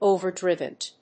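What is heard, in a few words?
"overdriven", English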